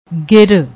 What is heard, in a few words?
"gir", Punjabi; ਗਿਰ